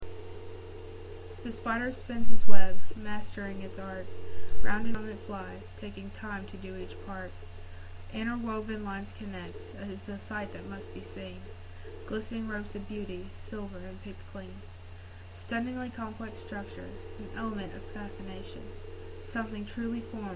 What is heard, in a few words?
Reading Spinning Glass